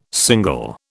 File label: "single", British